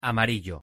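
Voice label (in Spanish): "amarillo" Spanish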